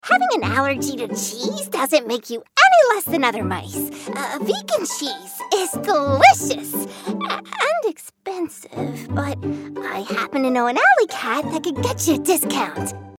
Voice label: Animation MOUSE